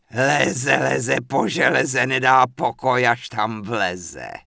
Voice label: Witch Doctor Pissed; wowpreklad; czwow